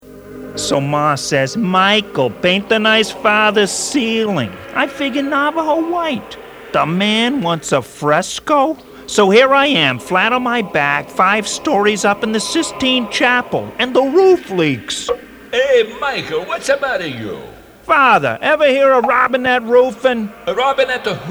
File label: Radio Spot